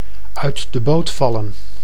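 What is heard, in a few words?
"uit de boot vallen", Dutch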